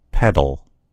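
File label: "pedal", American English; gcompris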